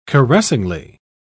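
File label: "caressingly", British English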